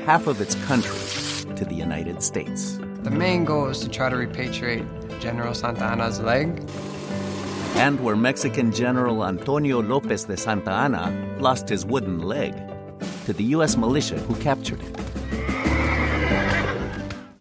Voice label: english american documentaries